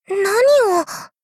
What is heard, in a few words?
angry